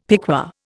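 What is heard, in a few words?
"piqué", Indian; Dictionary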